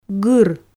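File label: "гыр", Komi, female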